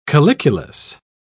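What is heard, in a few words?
"calyculus", British English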